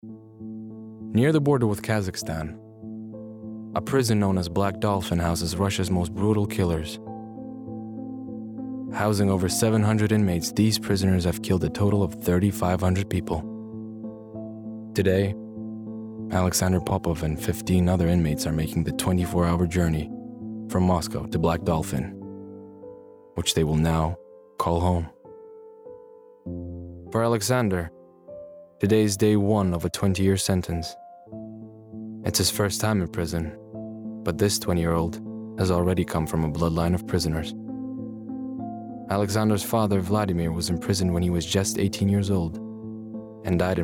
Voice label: Documentary; Prision

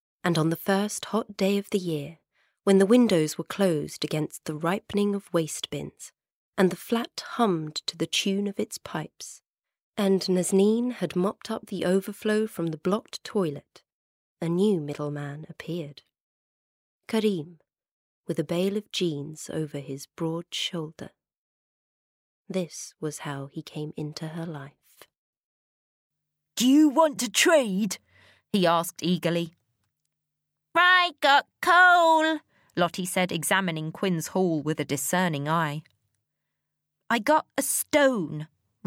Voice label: narration

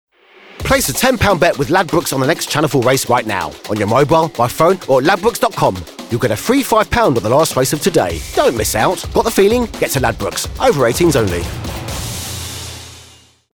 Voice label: Commercial; Ladbrokes

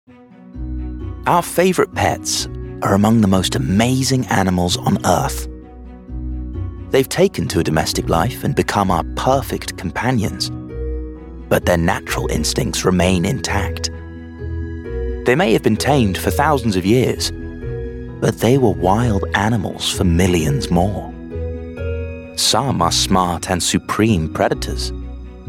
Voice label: doco

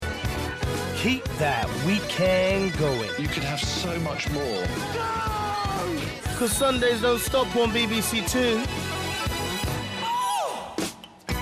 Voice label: promo; bbc2

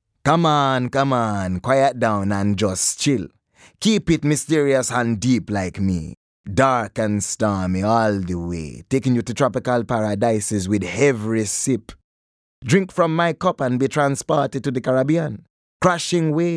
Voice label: character

